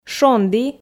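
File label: "шонді", Komi, female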